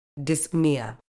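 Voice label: "dyspnoea", British English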